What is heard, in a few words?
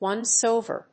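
"once-over", English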